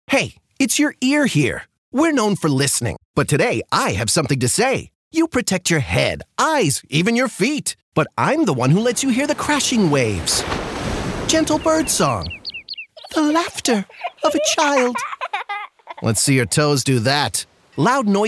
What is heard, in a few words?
radio hearing Radio Ads; worksafenb